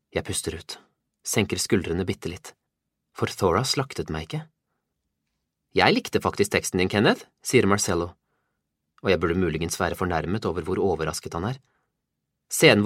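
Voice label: Norwegian Audiobook Male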